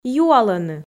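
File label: "юалӧны", Komi, female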